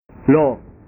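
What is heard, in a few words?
"lho", Indonesian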